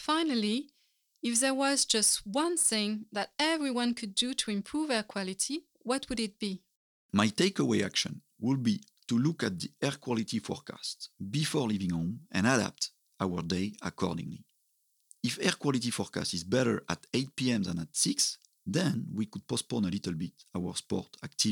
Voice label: podcast